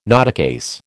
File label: "notecase", American